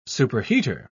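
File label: "superheater", English, uk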